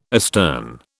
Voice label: "astern", British